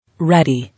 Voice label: "Ready", English

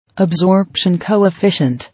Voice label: "Absorption coefficient", English

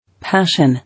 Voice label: "Passion", English

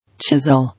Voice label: "Chisel", English

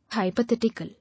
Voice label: "Hypothetical", English

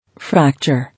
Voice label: "Fracture", English

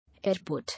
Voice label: "Airport", English

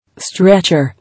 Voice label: "Stretcher", English

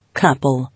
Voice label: "Couple" English

